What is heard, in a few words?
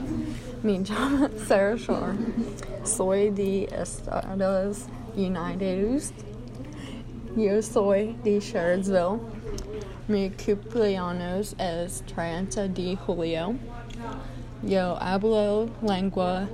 spanish